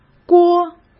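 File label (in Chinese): "锅", Mandarin Chinese; hsk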